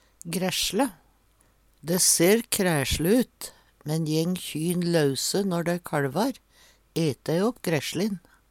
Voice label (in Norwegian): gressjle, Numedalsmål